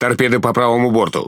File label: Speech Alarms Torpedo Right, RU; World Of Warships